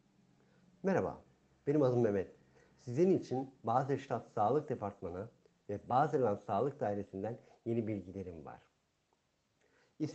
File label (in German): tuerkisch; kantonsarztlicher dienst; medizinische dienste; informationen in verschiedenen sprachen